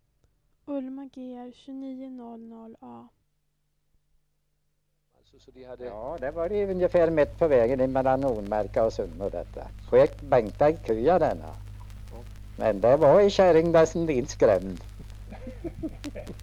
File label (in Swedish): Sunnemo, dialekter; Svenska sagor; Folkminnen